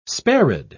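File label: "sparid", British English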